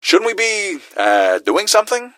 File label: doing something, gasmask; scmod